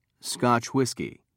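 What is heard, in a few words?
"scotch whiskey", British English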